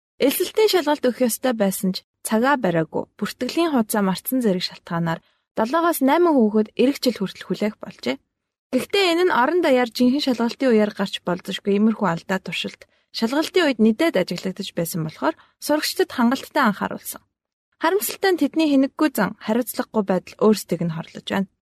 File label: Mongolian F; Voices Worldwide Studios